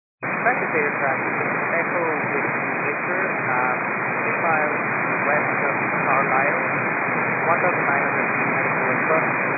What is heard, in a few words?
122 925MHz, scanner radio